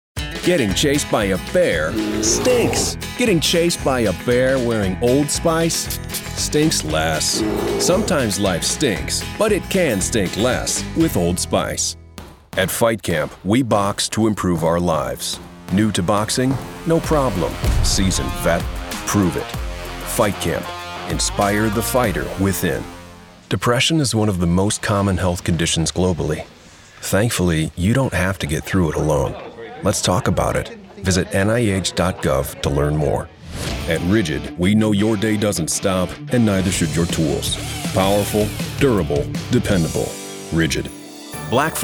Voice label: Commercial